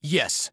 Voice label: Yes, Soldier Male; Soldier Male 01